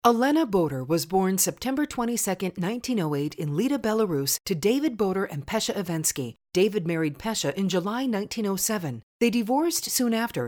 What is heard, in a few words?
Educational